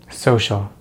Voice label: "social", American English; easypronunciation

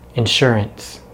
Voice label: "insurance", English; easypronunciation

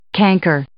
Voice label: "canker", English

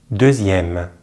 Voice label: "deuxième", French; Voyelles orales du français; easypronunciation

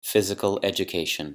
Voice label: "physical education", English

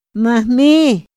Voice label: "ma hmi", Otomí; INPI; INALI

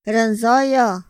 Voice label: "ra nzoya", Otomí; Días de la semana; INPI

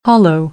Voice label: "hollow", American; oʊ - əʊ

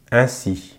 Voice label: "ainsi", French

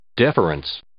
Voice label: "deference", English

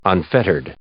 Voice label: "unfettered", English

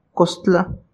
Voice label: "kostla", Náhuatl; pantorrilla; calf

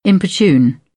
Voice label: "importune", British; t - ʧ; English

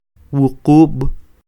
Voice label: "Wuqub'", Quiché; siete; seven; INALI; UTexas; Números

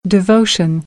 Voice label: "devotion", American English; oʊ - əʊ

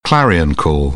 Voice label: "clarion call", British; AmericanBritish

